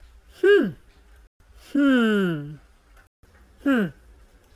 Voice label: Feminine Hmm